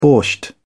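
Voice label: "borscht", British English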